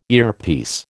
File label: "earpiece", American